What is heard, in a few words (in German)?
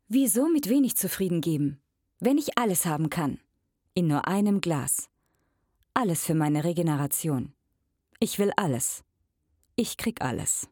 Werbespot Saft